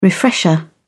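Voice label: "refresher", English, uk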